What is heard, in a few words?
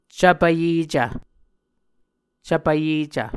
"cvpvyecv", muscogee